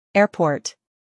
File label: "airport", English